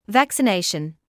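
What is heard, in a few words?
"vaccination", British English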